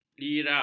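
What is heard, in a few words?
"lira", Malay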